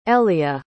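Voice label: "elea", English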